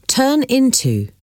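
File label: "turn into", English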